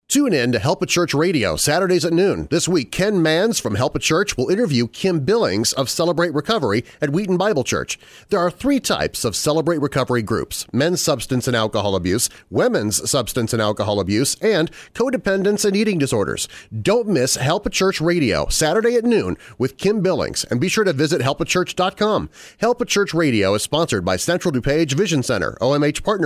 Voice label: help a church radio spot